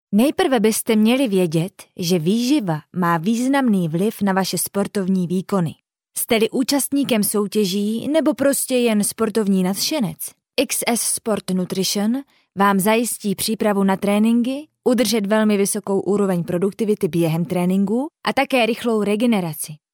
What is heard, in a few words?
Czech, female; lektoring